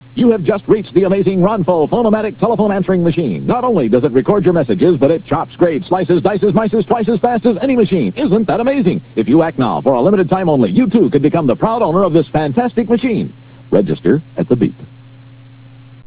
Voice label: advert